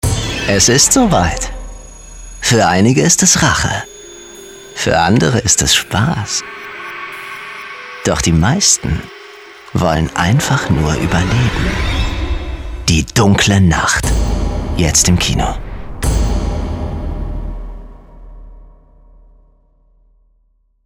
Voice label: Trailer Horror Thriller, German; voice